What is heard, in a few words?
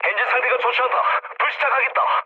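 Korean damaged engine mood low, speech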